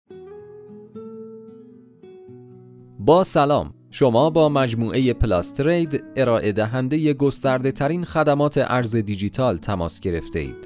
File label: Narration M